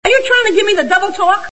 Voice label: double talk